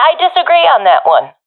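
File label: disagree, professional female; vo; Counter Strike Model Pack; CS:GO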